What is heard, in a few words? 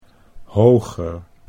"hoger", Dutch